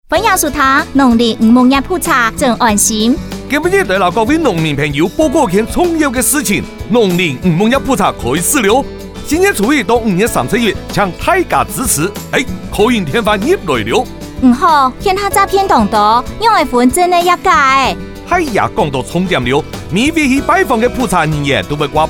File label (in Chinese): Call in 篇 客語版; 農林漁牧業普查